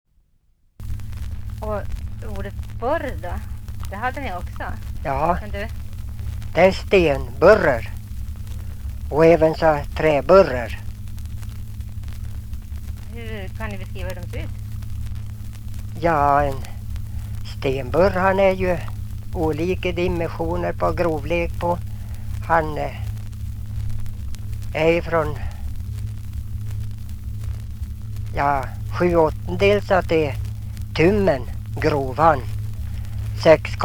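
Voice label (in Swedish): Ljustorp Medelpad, dialekter; Folkminnen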